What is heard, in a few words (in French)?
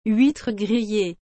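"huitre grillee", French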